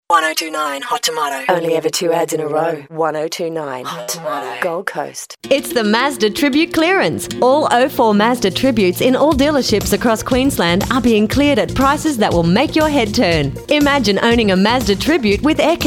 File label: Australian